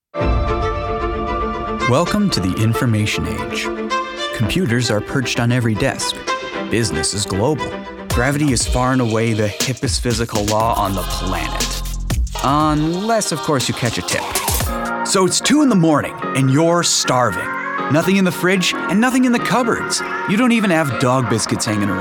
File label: Male Commercial